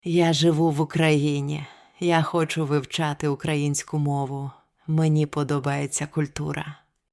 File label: Everyday Reading Slow, Ukrainian pronunciation